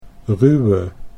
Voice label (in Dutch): "ruwe", Dutch